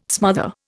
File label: "smother", Indian